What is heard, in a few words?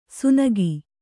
"ಸುನಗಿ", kannada